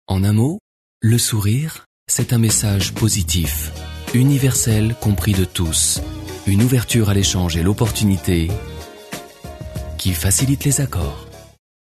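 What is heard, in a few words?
French, male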